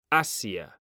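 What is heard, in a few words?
"Asia", Spanish, male; mekanta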